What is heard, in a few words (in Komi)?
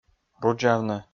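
"рудзавны", Komi, male; kpv audio dict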